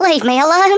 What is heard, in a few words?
"LEAVE ME ALONE", Australian; Worms Armageddon